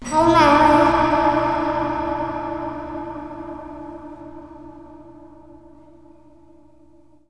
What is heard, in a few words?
the little boy somewhere in an empty Taj Mahal; placing sounds in unexpected reverberation situations